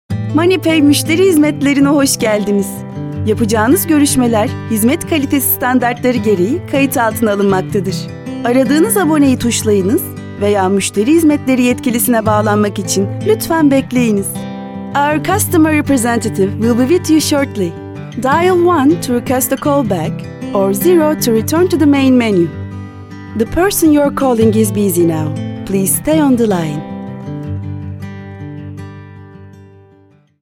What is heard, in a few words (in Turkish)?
santral, santral seslendirme